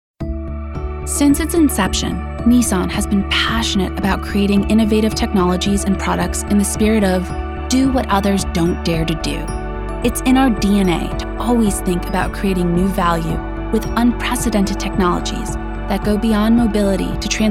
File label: CORPORATE